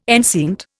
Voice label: "enceinte", Indian